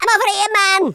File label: "missed", Madchester